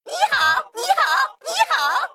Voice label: Male Mech GREETINGS; zh

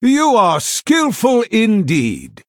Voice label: Male Human Well Played; HERO

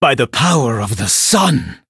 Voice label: Male Blood Elf WOW; en

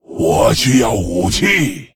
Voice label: Male Lich ERROR NEED WEAPON, zh

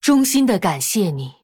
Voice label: Female Human Thanks; zh

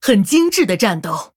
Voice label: Female Human Well Played; zh

